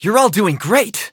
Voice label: eng winning; ice pop